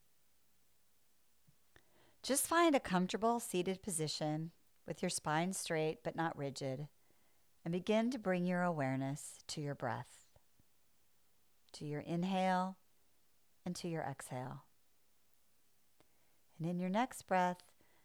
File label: BREATHE MEDITATION; spiritual mentorship month one; Monthly Spiritual Practice